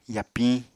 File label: "yapiñ", Apatani